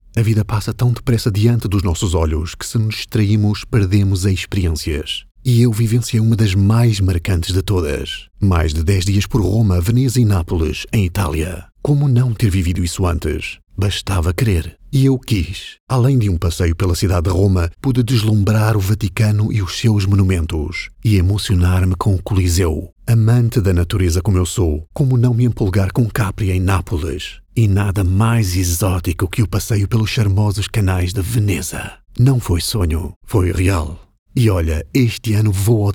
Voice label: portuguese narration

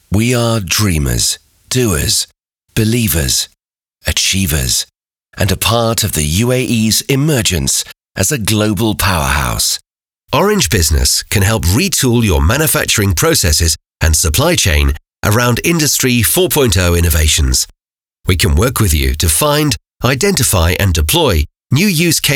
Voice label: english british corporate videos

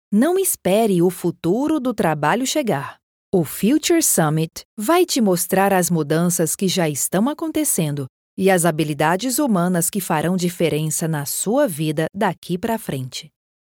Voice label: portuguese brazilian corporate videos

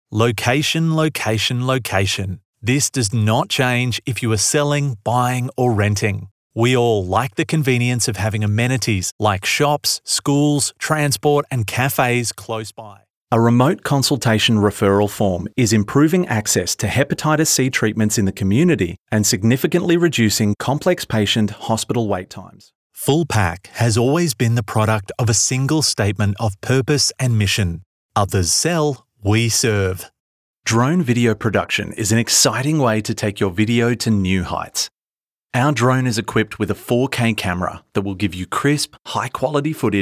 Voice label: english australian corporate videos

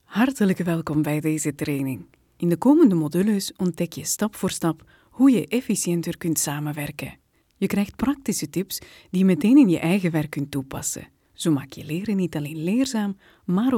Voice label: flemish e-learning

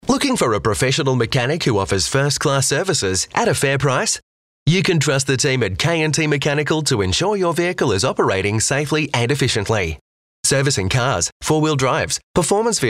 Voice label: english australian automotive; Modulated, clear Australian accent

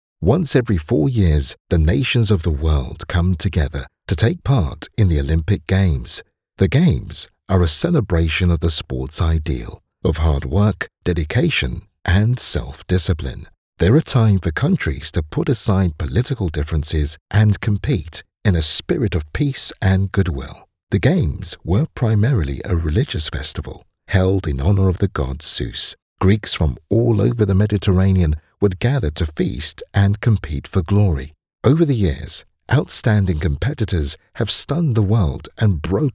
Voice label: english british narration; african english

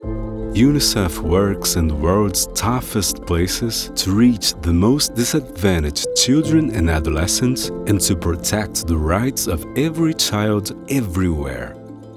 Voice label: english corporate videos

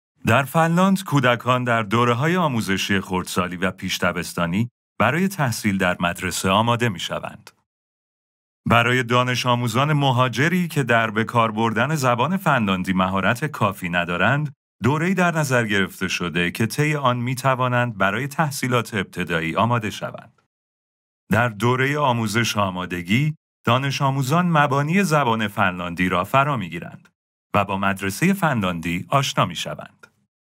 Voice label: farsi commercial; Armenia; actor de voz en farsi/persa

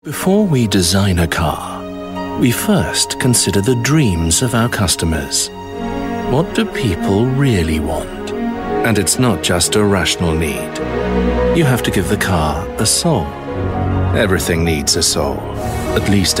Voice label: english british automotive

